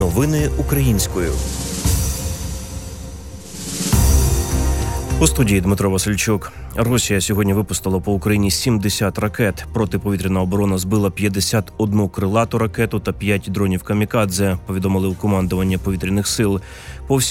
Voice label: Ukrainian Evening News